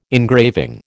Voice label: "engraving", American